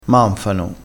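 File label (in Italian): "manfano", dialettale crespinese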